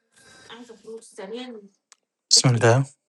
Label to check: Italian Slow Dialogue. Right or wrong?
Wrong